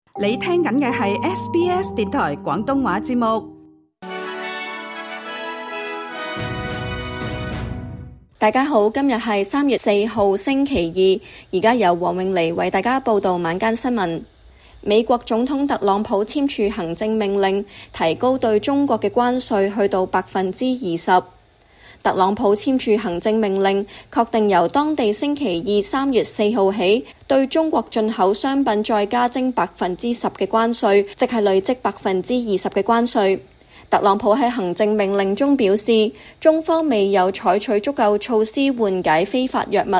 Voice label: cantonese; SBS 晚間新聞（2025年3月4日）; 每日重點新聞簡報; SBS Chinese

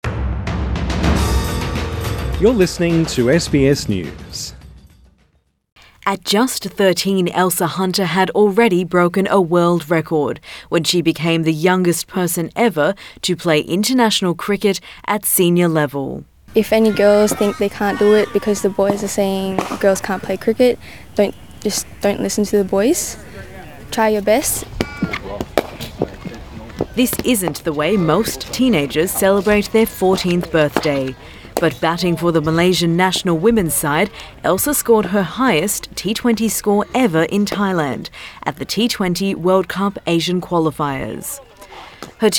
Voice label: english; SBS News; Teen cricketer sets new world record

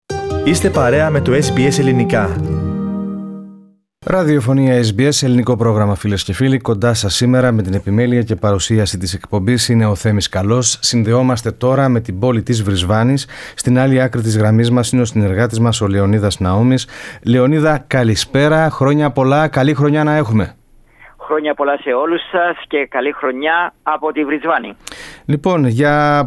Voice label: greek; Severe thunderstorm and flash flooding warnings for North Queensland; SBS Greek

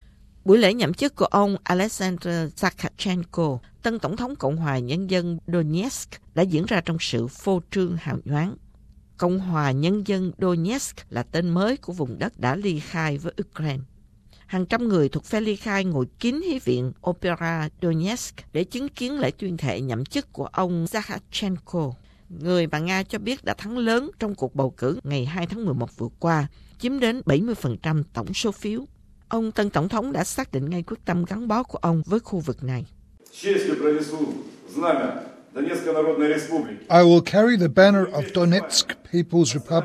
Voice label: vietnamese; ukraine deploys troops as tension increases

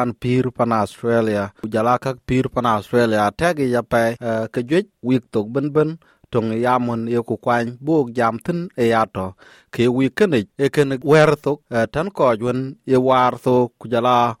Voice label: dinka; Role of interpreter and unrealistic individual expectations; SBS Dinka

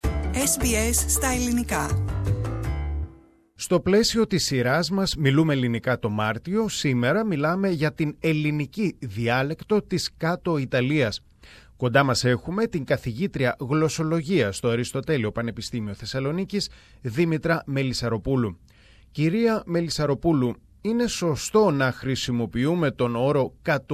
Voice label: greek; SBS Greek; The Greek dialects Griko and Grecanico of Italia's Magna Graecia

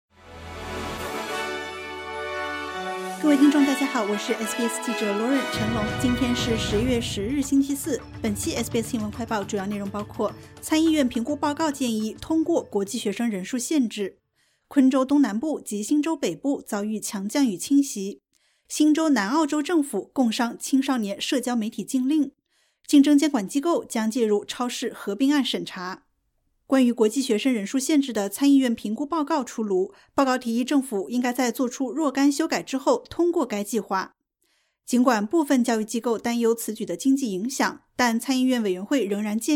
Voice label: mandarin news flash; 参议院报告建议通过国际学生限额计划; SBS Chinese; SBS新闻快报

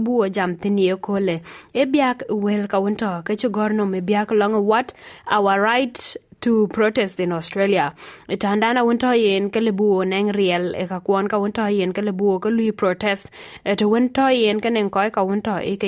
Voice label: dinka, podcast; Navigating the implicit right to protest in Australia; SBS Dinka

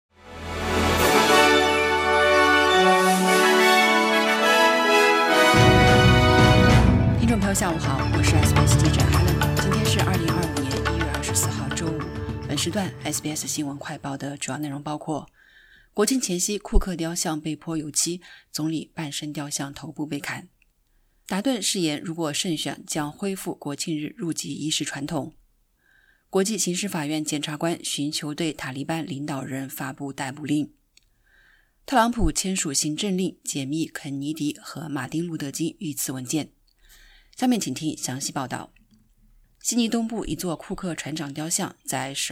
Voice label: mandarin newsflash; 国庆前夕库克雕像被泼油漆 总理半身雕像头部被砍; SBS Chinese; SBS新闻快报

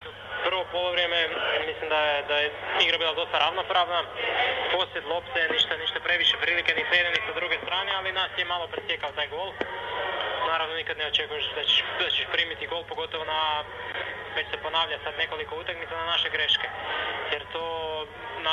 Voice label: croatian; comments on the game with sydney fc

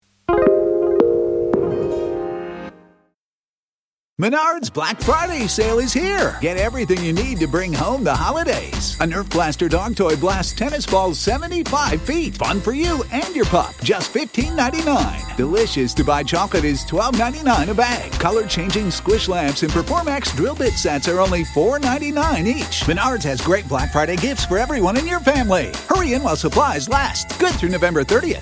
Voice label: cantonese, SBS晚間新聞; nightly news

